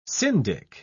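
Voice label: "syndic", British English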